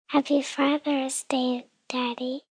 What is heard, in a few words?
Happy Fathers Day, Occasions, 1 girl, 3 yrs.; Holiday; VoiceBox